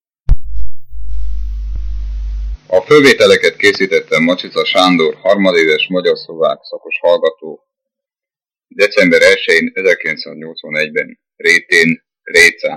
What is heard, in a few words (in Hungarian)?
nyelvjaras gyujtesek